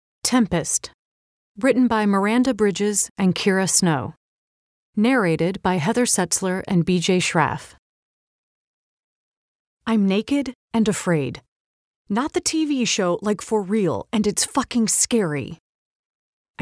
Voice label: Audiobook Sample; Tempest